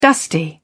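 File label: "dusty", British English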